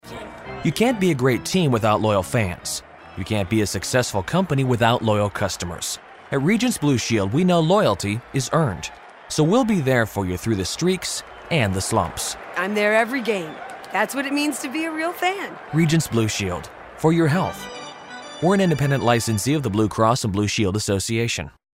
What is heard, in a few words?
Radio; Regence Mariners